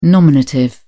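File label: "nominative", British English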